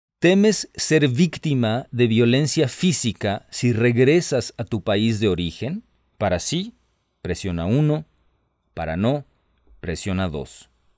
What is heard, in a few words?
Spanish, hotline